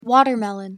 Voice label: "watermelon", English